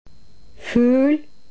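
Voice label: "fugl", Norwegian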